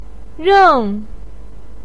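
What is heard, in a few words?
"reng", Mandarin Chinese syllable, fourth tone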